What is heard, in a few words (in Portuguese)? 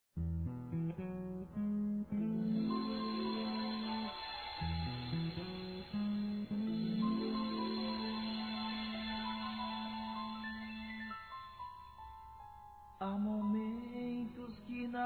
Desculpa Para Irmã Masculino; Desculpas; Telemensagens 2017